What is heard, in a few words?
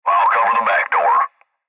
Cb Trucker Radio